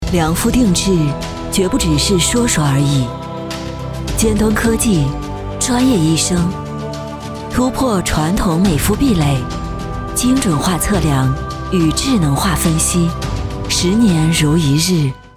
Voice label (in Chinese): 女 广告; 七色